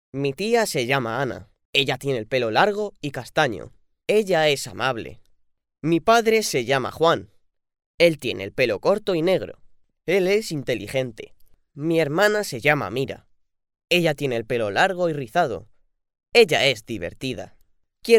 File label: Learning, actores infantiles de doblaje